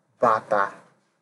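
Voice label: "bata", Nigerian Pidgin, male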